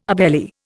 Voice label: "obeli", Indian